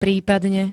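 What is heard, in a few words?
"prípadne", Slovak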